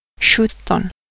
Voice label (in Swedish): "sjutton", svenska